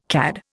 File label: "CAD", Indian